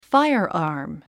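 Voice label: "firearm", English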